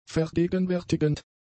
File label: "vergegenwärtigend", German; adjectives